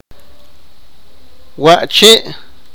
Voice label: sipakapense; where

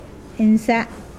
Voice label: blood, Mokilese

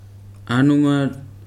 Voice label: "meat", Weri

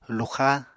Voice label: "luxá", Apatani

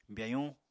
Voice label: "byayuñ", Apatani

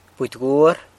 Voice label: "budy gwuar", San Lucas Quiavini; turkey

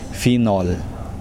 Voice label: "mountain", Kosraean

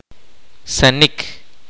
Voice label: kaqchikel

